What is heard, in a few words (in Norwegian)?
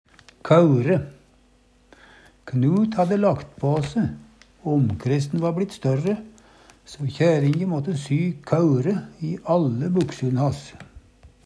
kaure, Numedalsmål